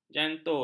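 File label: "jantur", Malay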